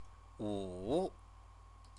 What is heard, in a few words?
"wu", Chinese; five; number